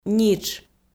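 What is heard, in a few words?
"нитш", Komi, female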